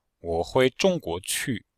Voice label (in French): Phrases types, chinois; Cours de Chinois